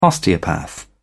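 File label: "osteopath", British English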